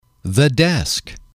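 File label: "desk", English, male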